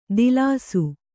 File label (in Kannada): "ದಿಲಾಸು", Kannada